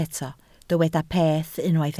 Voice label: "peth", Welsh